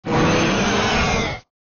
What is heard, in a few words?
roar; grimlock